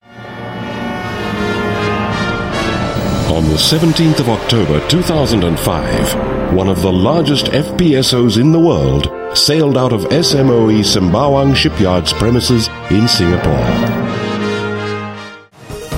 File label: Mid Atlantic AU English Docos, Australian, Male